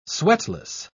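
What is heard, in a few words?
"sweatless", British English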